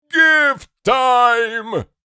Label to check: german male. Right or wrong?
Wrong